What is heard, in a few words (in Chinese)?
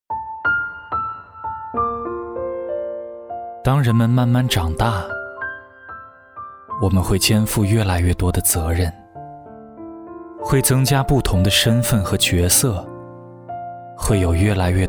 温情 讲述